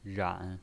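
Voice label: "ran", Mandarin Chinese, third tone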